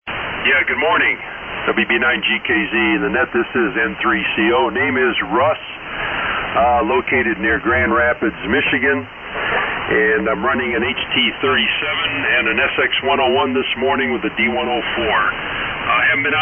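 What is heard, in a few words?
Hallicrafters HT-37 SX-101; Byron Center, MI.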